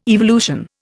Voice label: "evolution", Indian

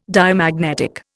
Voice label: "Diamagnetic", Indian

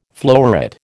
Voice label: "floweret", American

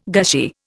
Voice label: "gushy", Indian

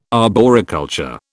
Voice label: "arboriculture", British

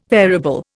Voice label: "variable", Indian

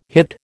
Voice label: "hit", American

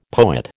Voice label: "poet", American

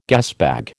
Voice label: "gasbag", American

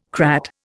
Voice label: "crat", Indian

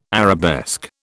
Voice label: "arabesque", British

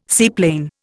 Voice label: "seaplane", Indian

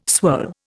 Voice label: "swirl", Indian

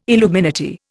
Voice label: "illuminati", Indian

